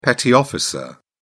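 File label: "petty officer", English, British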